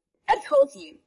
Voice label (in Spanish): Voice Acting es